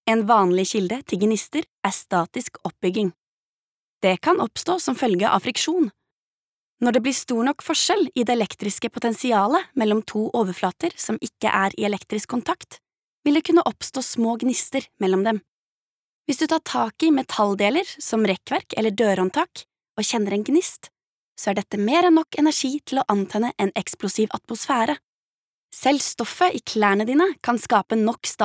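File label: no elearning, Norwegian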